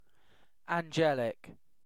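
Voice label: "angelic", British English; pronunciations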